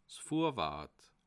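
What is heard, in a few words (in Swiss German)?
"vorwort", Bas Rhin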